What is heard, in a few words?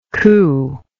"cooingly", English